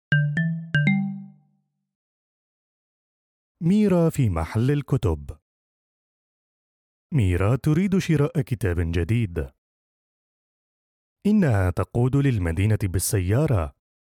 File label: Arabic Easy Reader